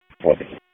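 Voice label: Police Dispatch, p25; Wake Forest Police Disp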